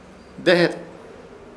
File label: "Dehet", Ishir Chamacoco; camino